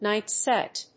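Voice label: "night-sight", English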